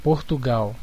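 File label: "portugal", Brazilian Portuguese; gcompris; geography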